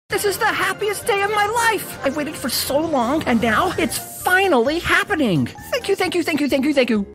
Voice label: Character Happy